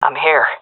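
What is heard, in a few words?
in position, female; vo; Counter Strike Model Pack; CS:GO; seal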